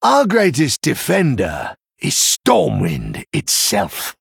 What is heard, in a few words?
Male Human